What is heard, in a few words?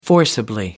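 "forcibly", en, uk British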